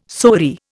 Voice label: "sori", Indian